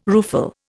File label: "rueful", Indian